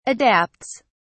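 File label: "adapts", English, British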